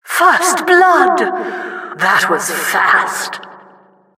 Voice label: announcer first blood

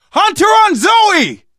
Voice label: hunter pounced, survivor voice; left4dead; manager; Source engine

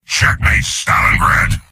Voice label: soldier domination heavy; source engine; tf; mvm

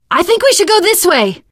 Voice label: follow me, teen girl; survivor voice

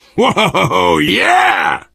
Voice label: violence awe, player survivor voice biker; source engine; left 4 dead 2

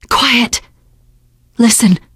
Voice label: heard witch, teengirl; source engine; left 4 dead 2; survivor

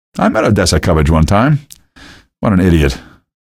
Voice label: remarks, male; video games; npc; source engine; episodic; episode 1

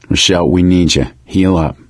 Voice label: suggest health, survivor voice; Left 4 Dead 2; Source Engine; video games; gambler

